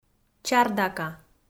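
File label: "чардака", Komi, female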